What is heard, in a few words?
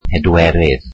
welsh fourth